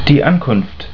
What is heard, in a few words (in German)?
"Ankunft", German